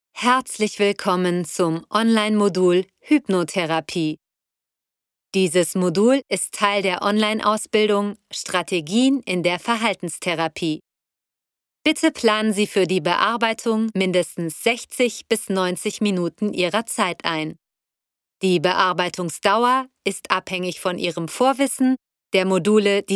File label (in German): eLearning, Sprecherin